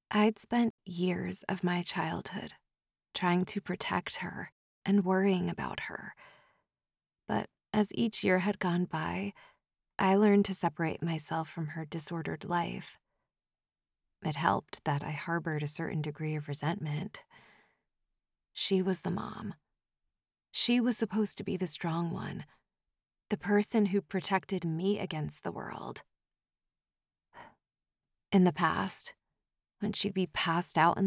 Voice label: YA Suspense sample